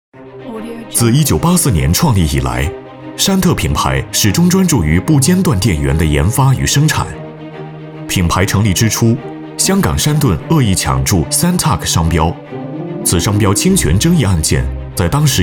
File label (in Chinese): 男 宣传 品牌宣传; 香港品牌宣传